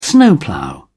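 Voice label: "snowplough", British English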